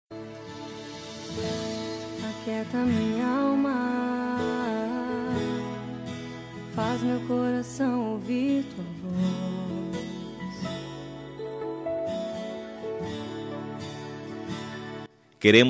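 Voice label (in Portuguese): Aniversário Evangélico Masculino, telemensagem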